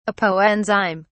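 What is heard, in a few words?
"apoenzyme", British English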